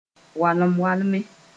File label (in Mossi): "walem-walme", Mooré